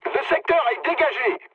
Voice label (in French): chat, speech fr